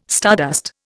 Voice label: "stardust", Indian